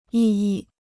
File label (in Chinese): "异议", Chinese